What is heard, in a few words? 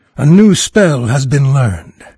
new spell, NARRATOR, en-US; Fate Undiscovered Realms